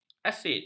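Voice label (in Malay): "asid", Malay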